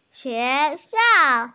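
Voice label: "school", hearing impaired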